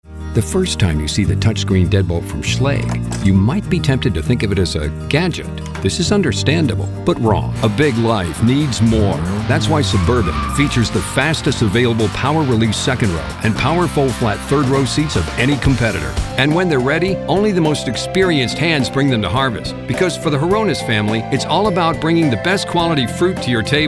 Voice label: Commercial